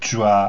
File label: "zhua", pinyin sounds; Mandarin Chinese Pronunciation